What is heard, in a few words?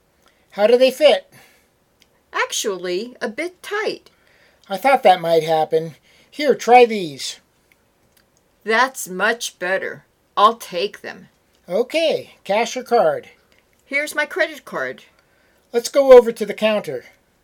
Natural Dialog; Lesson 2